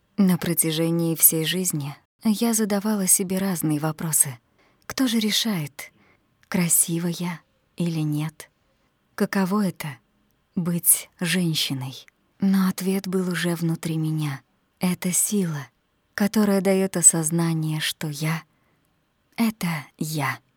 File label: monolog